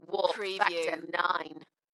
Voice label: UK female, Warp factor nine, Dalekness; Tech / Sci-fi; beanstalk audio